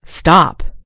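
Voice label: "stop", American English; gcompris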